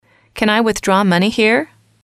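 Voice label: can I Withdraw Money Here, english, female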